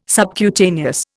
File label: "subcutaneous", Indian